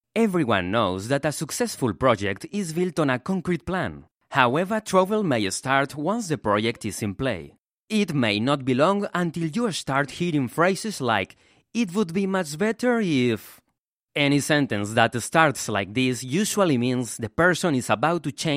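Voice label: Spanish English, male, e-learning, accent of spain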